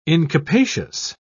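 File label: "incapacious", British English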